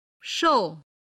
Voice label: "shou4", chinese